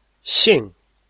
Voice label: "xing4", Chinese

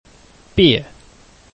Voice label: "bie4", Chinese

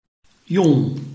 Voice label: "yong4", Chinese

